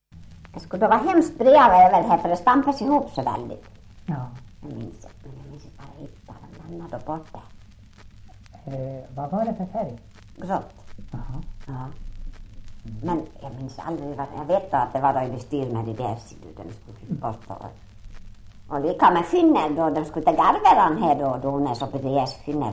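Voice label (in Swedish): Ål Dalarna, dialekter; Folkminnen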